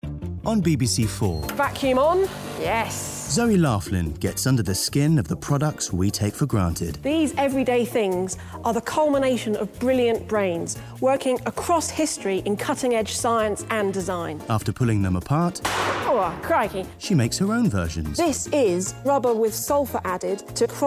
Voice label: Radio Commercial; BBC Radio 4

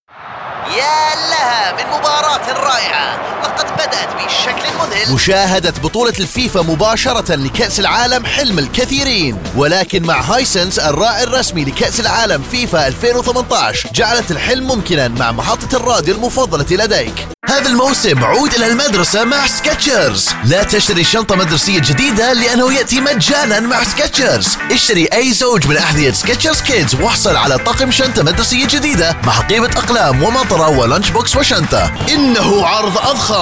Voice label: Arabic Radio

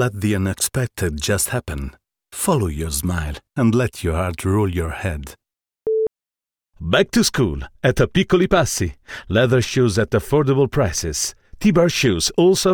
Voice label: ENG with ITA

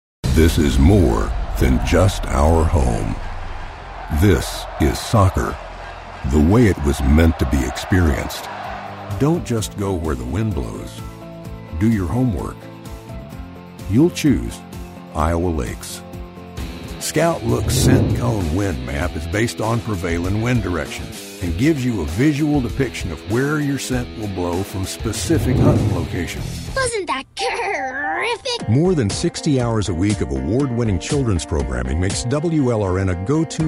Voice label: Actual commercials

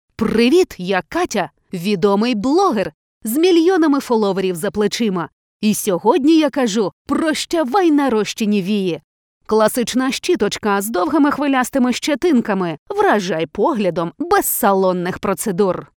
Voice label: comedy ukr

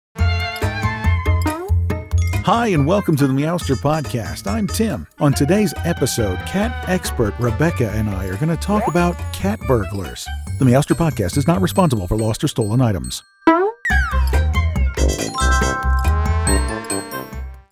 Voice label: Podcast

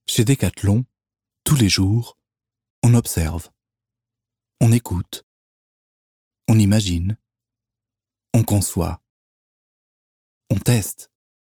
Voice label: French Advertising VO

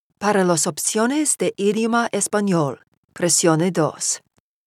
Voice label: Spanish option

